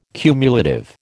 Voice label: "cumulative", American